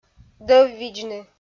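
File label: "дӧввидзны", Komi, female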